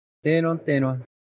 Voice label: "tero-tero", Tapiete